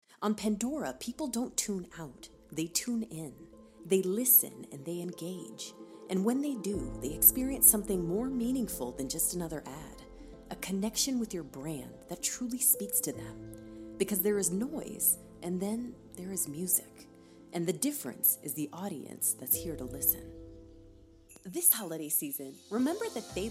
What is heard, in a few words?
Commercial VO